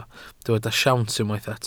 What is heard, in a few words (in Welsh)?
"siawns", Welsh